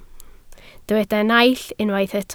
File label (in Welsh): "naill", Welsh